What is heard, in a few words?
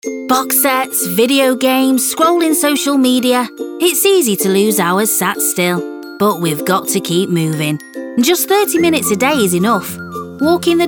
Radio Adverts; Get Manchester Moving; Greater Manchester Moving